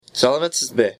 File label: kazakh salamatsizbe how are you